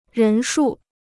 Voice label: "人数", Chinese